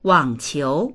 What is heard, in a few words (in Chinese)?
"wang3qiu2", voices; 网球; テニス